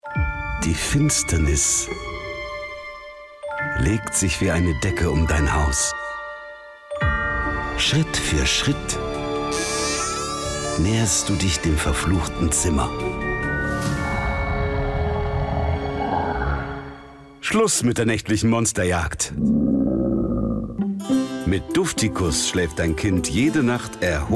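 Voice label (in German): werbung, sprecher; dufticus; LOFT VOICES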